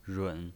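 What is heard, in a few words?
"run", Mandarin Chinese pinyin voice, third tone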